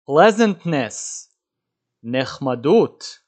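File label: "pleasantness" English, "נחמדות" Hebrew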